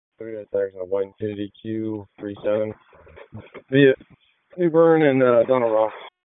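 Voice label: Police District Dispatch, p25; Raleigh Police Southeast District Disp; wake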